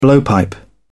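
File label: "blowpipe", British English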